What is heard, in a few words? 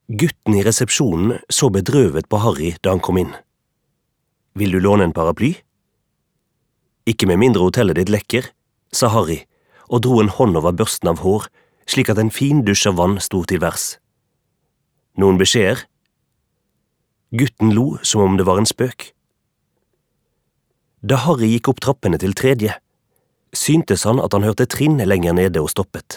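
Norwegian audiobook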